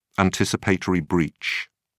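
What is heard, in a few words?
"anticipatory breach", English, British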